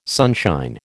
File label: "sunshine", American